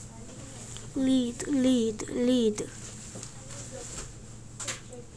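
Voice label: "lead", spoken word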